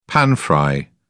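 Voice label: "pan-fry", British English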